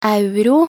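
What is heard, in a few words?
"euro", Norwegian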